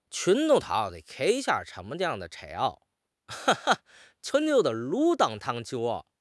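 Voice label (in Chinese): "qun2", Chinese; 汉语口语新时代-初级下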